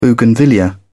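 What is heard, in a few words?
"bougainvillea", British English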